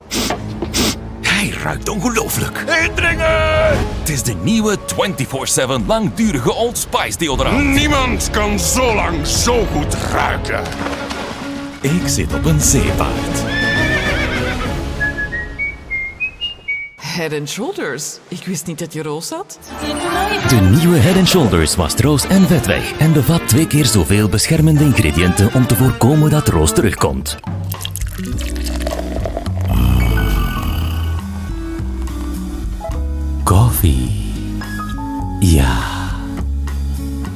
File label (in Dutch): flemish commercial, male voices